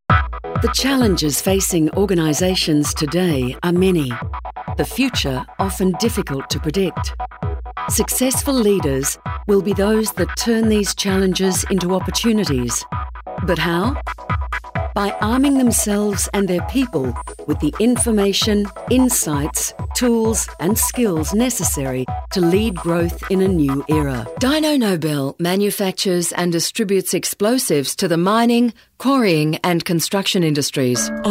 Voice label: corporate